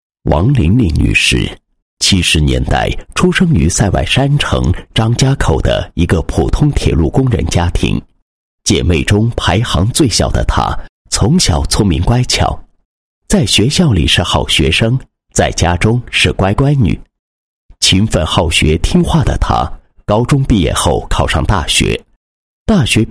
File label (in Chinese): 男 人物; 美容产品 铁路工人王某事迹